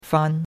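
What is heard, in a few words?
"fan", Chinese, first tone; Cours de Chinois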